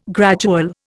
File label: "gradual", Indian